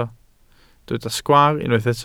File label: "sgwaar", Welsh